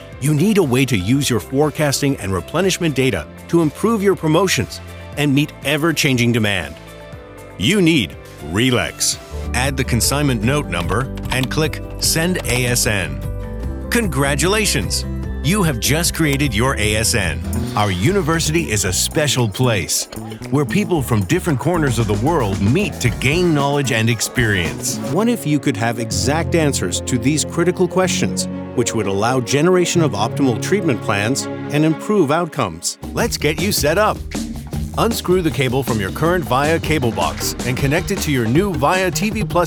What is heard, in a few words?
Explainer Video Voiceover